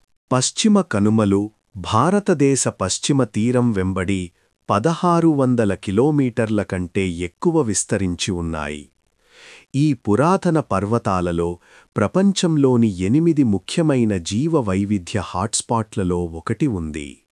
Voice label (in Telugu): documentary, Telugu